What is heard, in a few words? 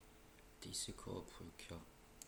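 Korean, Korea; speech massive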